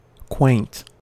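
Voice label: "quaint", US English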